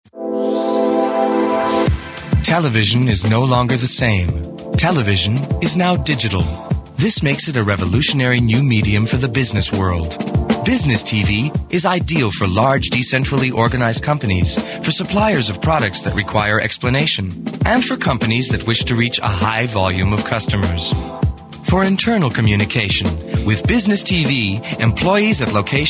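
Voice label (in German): US English, Kommentar, Neutral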